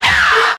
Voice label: "ya", voice

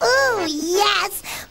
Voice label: ooh yes cartoon

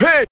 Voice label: "hey" male; ooh ahh

